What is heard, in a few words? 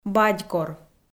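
"бадькор" Komi, female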